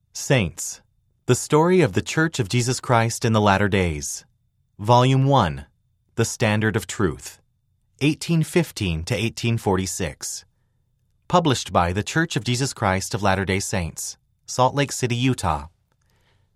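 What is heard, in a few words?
title page male voice, english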